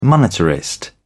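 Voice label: "monetarist", English, British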